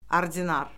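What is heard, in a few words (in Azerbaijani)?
"ordinar", Azerbaijani; Orfoqrafiya Lüğəti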